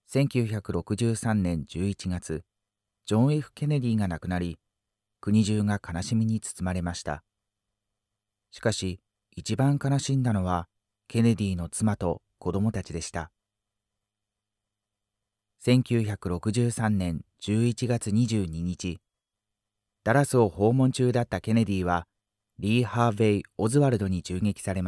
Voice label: male